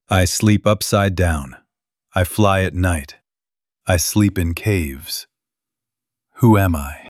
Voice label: bat, HALLOWEEN MYSTERY VOICES